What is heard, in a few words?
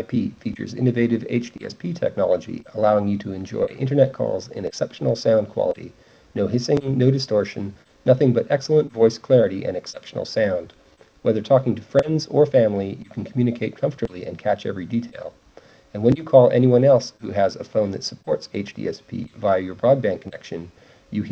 A580IP G722 speakerphone; Gigaset A580IP SIP-DECT cordless phone system